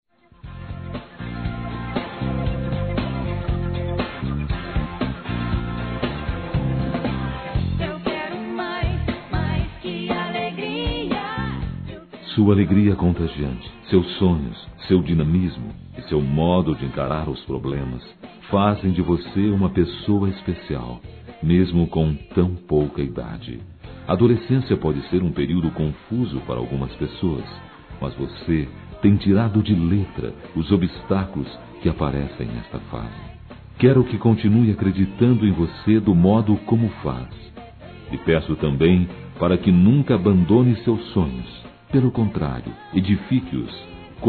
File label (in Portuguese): Aniversário Adolescente Masculino; Aniversários; ANIV. DE ADOLESCENTE; Telemensagens